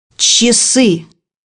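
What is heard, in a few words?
"clock", Russian; bukvar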